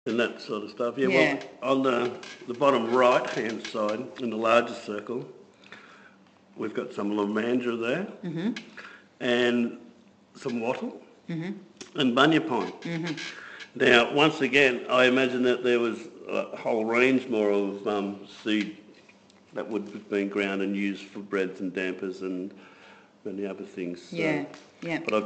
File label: narration